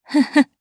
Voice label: Vox Happy, jp; kingsraid

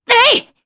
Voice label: Attack, jp; voices; heroes; Naila; kings raid

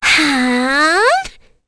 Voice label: Kirze Vox Casting, voices heroes en; kingsraid-audio

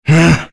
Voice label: Vox Sad; Kain; kingsraid

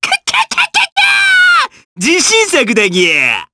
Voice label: Vox Skill jp; Lakrak; kingsraid

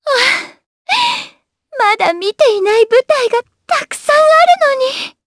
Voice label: Dead, jp; Shamilla; kingsraid

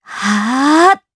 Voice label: Casting, jp; Laudia; kings raid

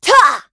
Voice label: Vox Attack kr; heroes; Isolet; kingsraid

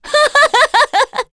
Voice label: Happy; Cecilia; Kings Raid

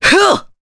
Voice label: Attack, jp; Pavel; kingsraid

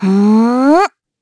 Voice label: Casting, Madness, kr, voices; heroes; Xerah; kings raid

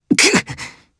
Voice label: Damage, jp; Theo; kings raid

